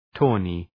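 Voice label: "tawny", English; {‘tɔ:nı}; (Επίθετο)● κιτρινομαύρος● καστανόξανθος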